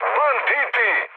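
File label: Serbian enemy detected mood high; speech; War Thunder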